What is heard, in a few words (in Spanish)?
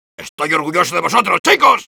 Soldier cheers, es